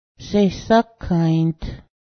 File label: mouth, Cayuga